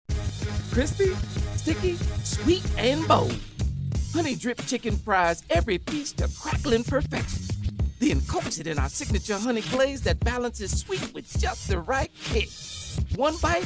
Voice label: Commercial; Honey Chicken